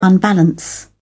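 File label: "unbalance", British English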